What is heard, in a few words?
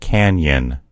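"canyon", American English; gcompris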